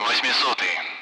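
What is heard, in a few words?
Speech, Russian, 8th; Allied Flight; DCS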